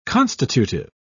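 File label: "constitutive", English, British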